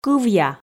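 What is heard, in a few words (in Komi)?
"кывъя", Komi, female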